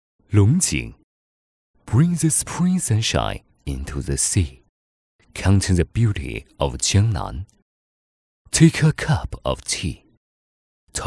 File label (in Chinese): 龙井英文